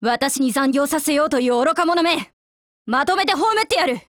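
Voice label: Japanese; PPD-40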